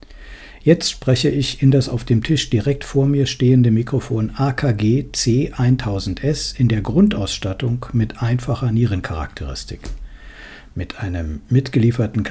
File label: AKG1000S Normal